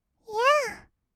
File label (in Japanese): "いぇあ", kawaii voice; ASMR; 1200 kawaii voice!!; 音ゲー